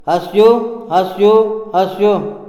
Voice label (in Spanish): porqué, Tojolabal